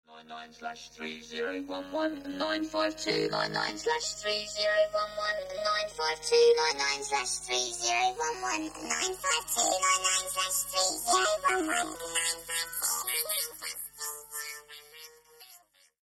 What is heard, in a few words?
Vocoder; infiltrator